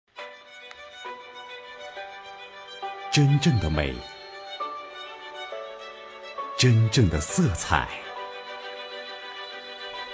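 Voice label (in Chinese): 男广告; 手机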